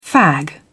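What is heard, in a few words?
"fag", British English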